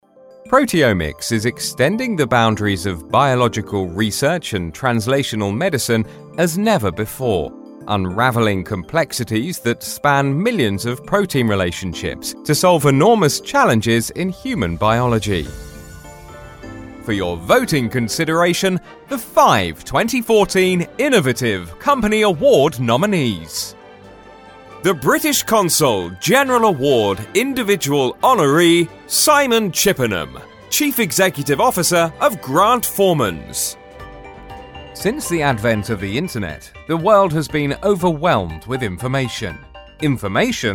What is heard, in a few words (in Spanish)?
Masculino